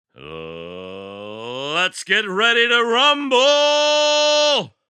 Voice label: Sports Announcer Lets Get Ready To Rumble; Apple Hill Studios